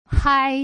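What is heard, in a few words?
"hai", Mandarin Chinese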